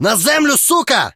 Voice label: attack, combat ukr